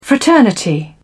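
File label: "fraternity", English, British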